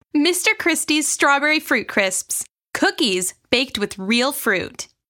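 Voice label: American English, Commercial; GoLocalise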